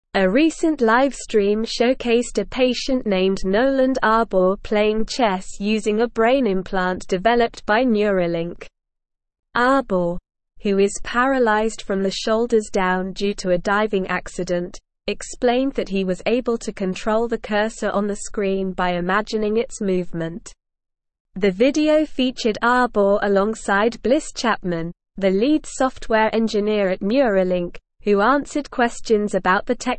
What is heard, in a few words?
English Newsroom Advanced SLOW Reading; Paralyzed Patient Plays Chess Using Brain Implant